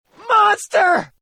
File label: monster, crewmen